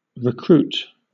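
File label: "recruit", British English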